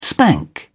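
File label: "spank", English, British English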